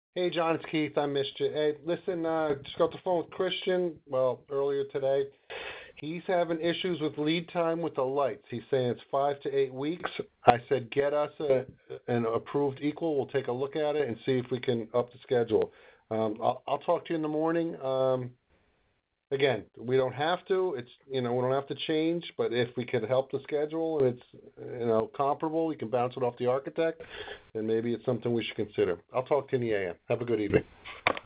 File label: Voice Message